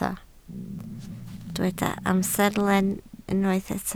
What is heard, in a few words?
"amserlen", Welsh